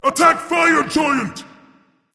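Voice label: attack female berserker; smite; cuchulainn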